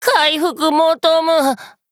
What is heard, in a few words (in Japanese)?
VO jp